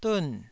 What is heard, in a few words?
"dun4", taiwanese syllable